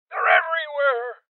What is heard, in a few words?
Voices Heavy Losses Male Old